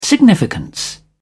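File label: "significance", English, British English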